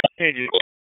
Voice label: Raleigh Police LE Ops 7, P25 recordings; wake

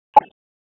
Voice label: Police Downtown District Tac 1, p25; Raleigh; wake p25

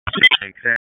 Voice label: WSO DISP 3, P25 recordings; wake

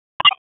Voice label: Police Southeast District Tac; wake p25

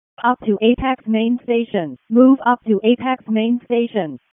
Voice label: EMS ALERT; wake

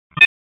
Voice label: Police LE Ops 5; Raleigh; wake

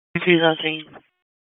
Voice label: PS TAC, p25

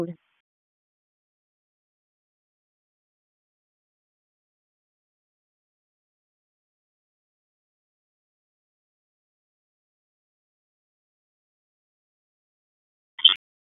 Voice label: Police Spec Ops Tac 4, p25; Raleigh; wake

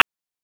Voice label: Police North District Tac 1, p25; Raleigh; wake p25

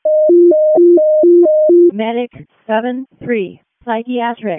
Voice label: EMS ALERT, p25

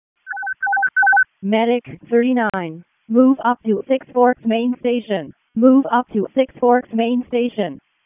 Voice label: WC EMS ALERT, p25

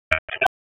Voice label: Police Northwest District Tac 1

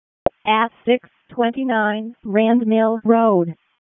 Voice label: EMS ALERT; wake

